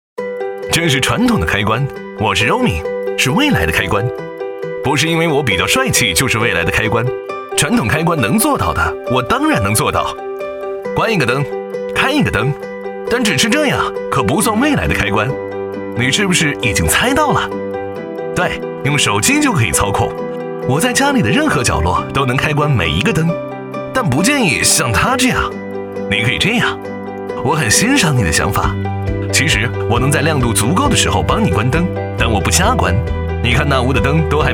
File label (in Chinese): 国语男, 飞碟年轻; roome开关